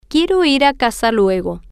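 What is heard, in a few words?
I want to go home later, Spanish, female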